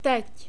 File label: ted, czech soft cons